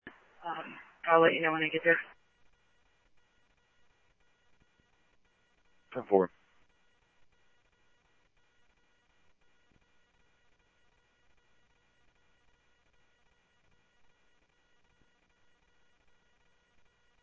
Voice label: Police, scanner audio